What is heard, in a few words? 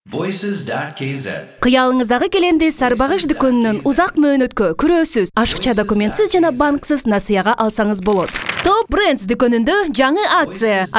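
Kyrgyz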